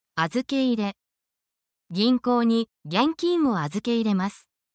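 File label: Japanese word, essential Japanese phrases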